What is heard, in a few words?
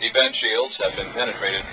announcer shields